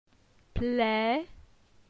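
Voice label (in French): "Ple", French; phonetique; la syllabe e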